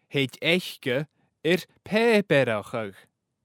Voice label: thèid aice air pàipearachadh, Gaelic; she will manage to do papering; Painting and papering; A’ peantadh agus a’ pàipearachadh

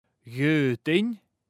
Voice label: dh’fhaodainn, Gaelic; I could …; Painting and papering

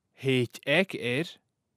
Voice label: thèid aig air, Gaelic; … can (manage) …; Painting and papering

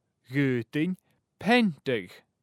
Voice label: dh’fhaodainn peantadh, Gaelic; I could paint; Painting and papering

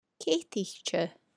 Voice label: ASMR

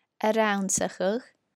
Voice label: ASMR

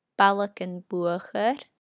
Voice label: ASMR

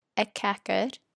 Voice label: ASMR

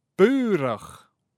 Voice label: bùrach, Gaelic; a mess; Painting and papering

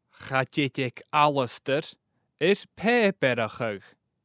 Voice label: cha tèid aig Alasdair air pàipearachadh, Gaelic; Alasdair will not manage to do papering; Painting and papering; A’ peantadh agus a’ pàipearachadh

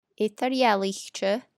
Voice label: Gaelic; Carrbridge; Entertainment Dibhearsan

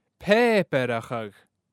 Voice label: pàipearachadh, Gaelic; papering; Painting and papering

